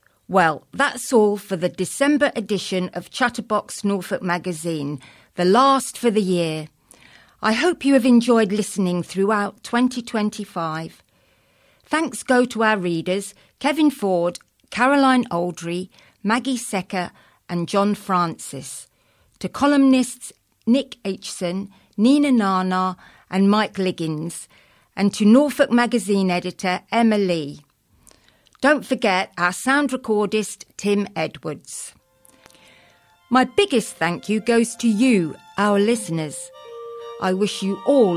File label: Closing announcement; Chatterbox; Norfolk Magazine